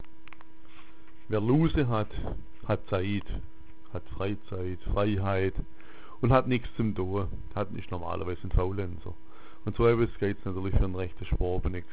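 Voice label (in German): luse haben, schwäbisch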